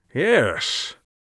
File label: sceptical, Mage Voice; Mage Voice Pack